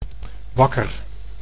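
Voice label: "wakker", Dutch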